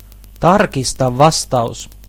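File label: check answer, Chinese; gcompris-data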